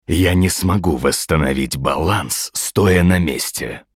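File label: Hero Idle Russian, Мужчина; длительное время не дает команд персонажу; Diablo III: обзор обновления PTR 2.6.0 — озвучка некроманта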